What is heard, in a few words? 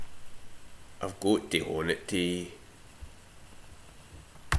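"haun", slang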